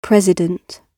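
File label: "president", British English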